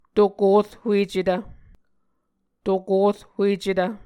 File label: "tokorhuecetv", Muscogee